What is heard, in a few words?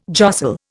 "jostle", Indian